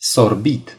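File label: "sip", Romanian; gcompris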